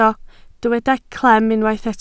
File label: "clem", Welsh